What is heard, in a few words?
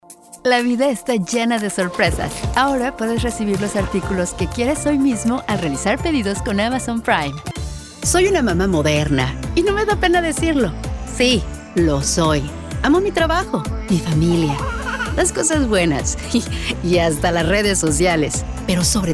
Spanish Commercial